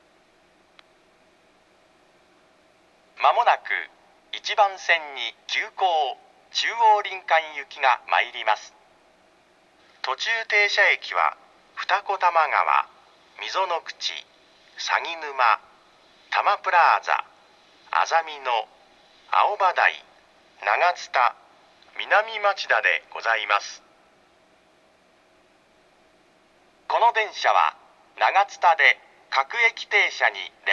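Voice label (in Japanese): 接近放送, 三軒茶屋駅; 急行 中央林間 途中停車駅は 二子玉川・溝の口・鷺沼・たまプラーザ・あざみ野・青葉台・長津田・南町田; 長津田で各駅停車に連絡致します。; tokyu-corporation; sangenjaya; express chuo-rinkan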